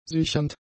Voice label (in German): "sichernd", German; adjectives